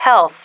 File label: "health", female